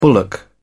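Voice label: "bullock", British English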